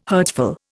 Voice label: "hurtful", Indian